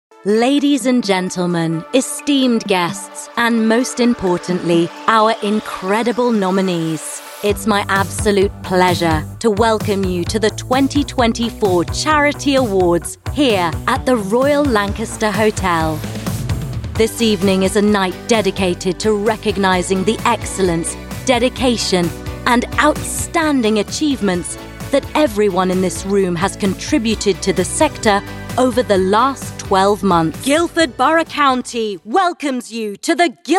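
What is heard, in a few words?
voice of god, Clear Bright British Voiceover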